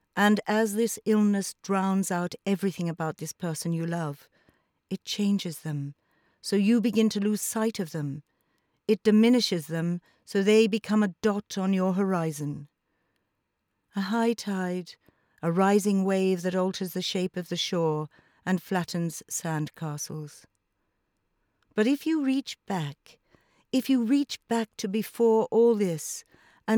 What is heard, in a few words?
Audiobook Factual